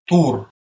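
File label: "tor", Proto-Indo-European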